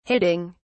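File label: "hitting", British English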